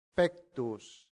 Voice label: "pectus", latin; santillana